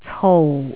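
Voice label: "cou4", Jyutping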